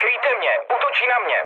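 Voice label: aircraft damaged, Czech, mood med; War Thunder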